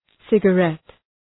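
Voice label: "cigarette", English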